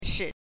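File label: "shi", Mandarin Chinese, neutral tone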